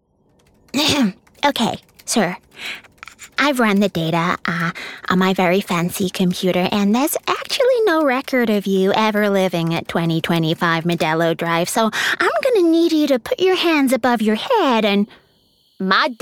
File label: character; character showreel